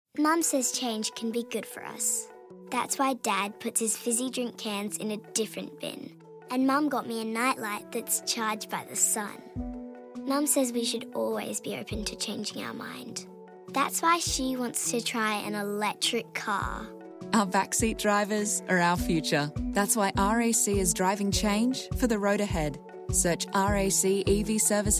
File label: RADIO General Message; MATTERKIND